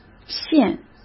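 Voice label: "限", Mandarin Chinese; hsk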